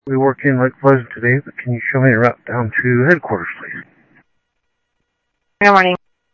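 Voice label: Sheriff Lake Patrol, scanner audio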